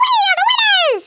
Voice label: "victory", American; Speech; International